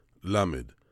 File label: "Lamed", Hebrew